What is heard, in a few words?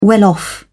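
"well-off", British English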